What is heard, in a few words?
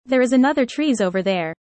fast